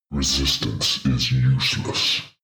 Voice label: demon dark voice thick speak resistance is useless